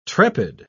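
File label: "trepid", British English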